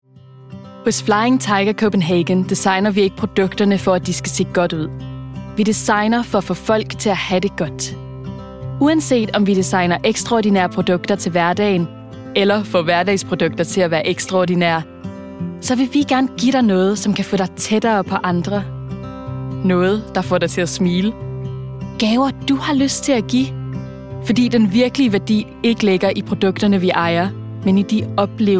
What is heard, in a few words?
danish